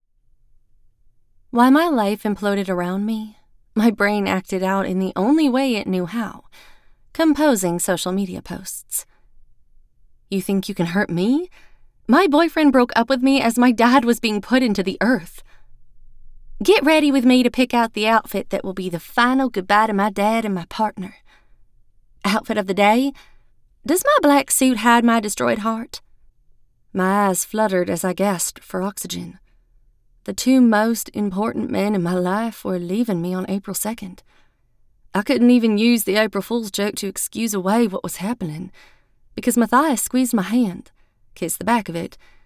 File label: Dialect Samples